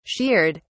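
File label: "sheared", British English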